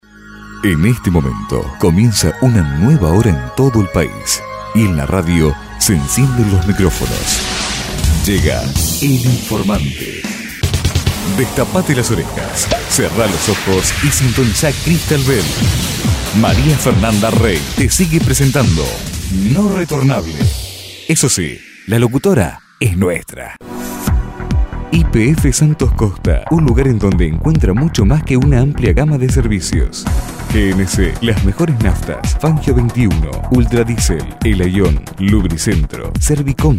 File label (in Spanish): locucion